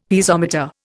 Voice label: "Piezometer", Indian; Dictionary